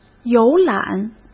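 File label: "游览", Mandarin Chinese; chinese flashcards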